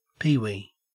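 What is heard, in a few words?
"peewee" English, Australian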